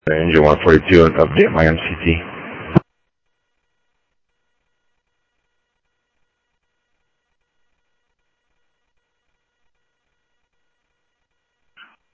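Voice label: fire radio dispatch, Phoenix Fire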